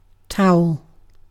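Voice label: "towel", British English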